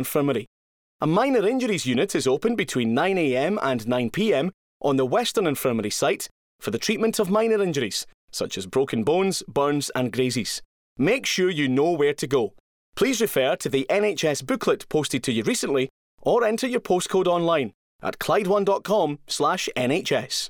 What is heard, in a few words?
radio advert hospital closures; radio clyde; wig ae now closed